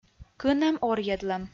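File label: "кынӧм орйӧдлӧм", Komi-Zyrian, female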